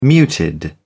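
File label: "muted", British English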